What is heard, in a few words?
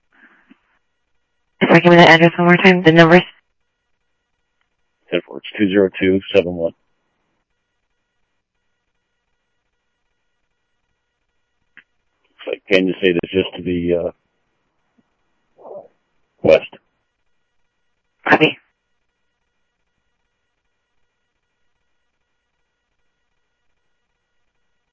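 Sheriff East County, scanner audio trunk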